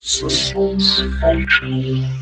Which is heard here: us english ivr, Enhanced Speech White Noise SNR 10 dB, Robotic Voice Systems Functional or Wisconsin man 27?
Robotic Voice Systems Functional